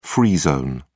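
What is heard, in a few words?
"free zone", British English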